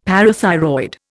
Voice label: "parathyroid", Indian; Dictionary

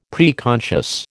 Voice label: "preconscious", American

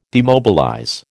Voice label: "demobilize", American

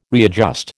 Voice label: "readjust", American

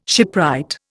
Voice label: "shipwright", Indian

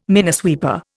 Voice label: "minesweeper", Indian

